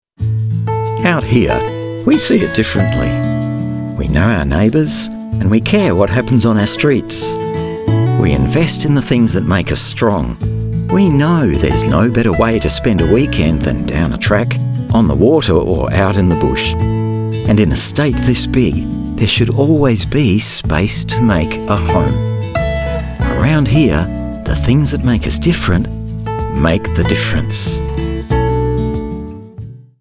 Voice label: Earthy, Conversational Ad., male; Radio Commercials